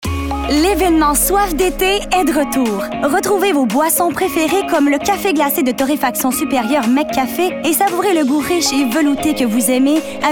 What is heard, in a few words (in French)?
ANNONCEUR